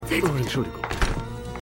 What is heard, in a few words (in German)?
Mann; Pushing Daisies